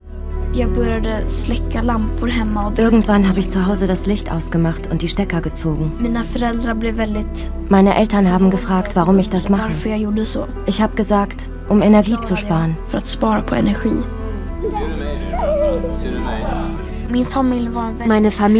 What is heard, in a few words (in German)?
Dokumentation; I am Greta